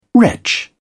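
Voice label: "wretch", English, British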